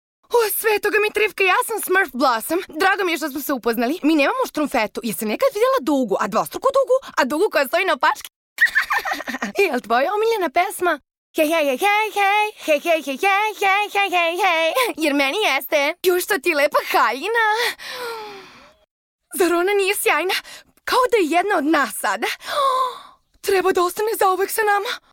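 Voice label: serbian female character; voice demos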